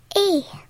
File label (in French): et (amplifié et accéléré)